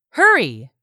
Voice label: "HURRY", english; 3000 words